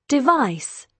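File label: "device", British English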